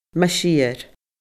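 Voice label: "mas fhior", Scottish Gaelic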